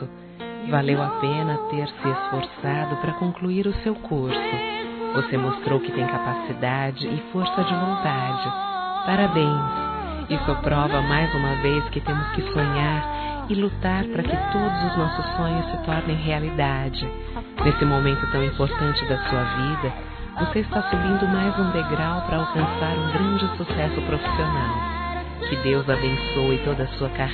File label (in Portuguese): FORMATURA DO IRMÃO, fem; Telemensagens 2017